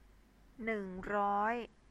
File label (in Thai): "neung roi", Thai